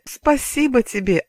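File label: pronunciation "спасибо тебе", Russian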